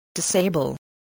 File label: "disable", english spelling